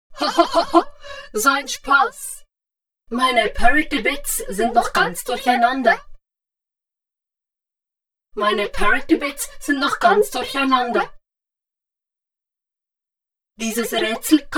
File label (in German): Sprueche mit FX